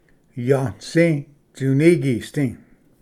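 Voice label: "yanasi tsunigisdi", Cherokee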